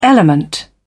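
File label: "element", British English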